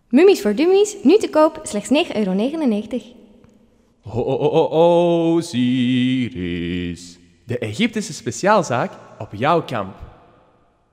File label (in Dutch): Reklame